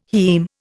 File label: "haem", Indian